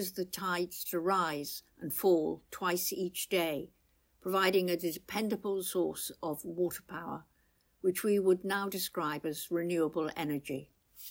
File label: Mill English, Carew tidal mill audio tour; carew castle